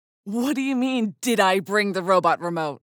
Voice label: Sidekick, teenager; en-GB